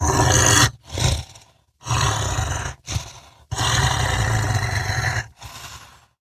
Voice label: Idle Grineer Wolf, en; Battle Barks